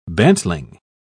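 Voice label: "bantling", British English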